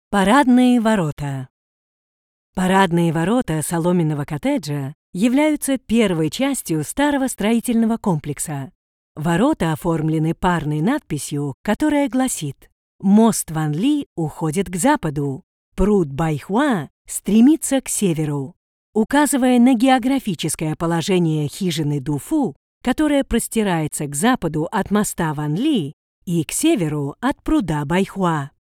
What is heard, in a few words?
Ru female, 俄语配音; 俄语配音员（女1）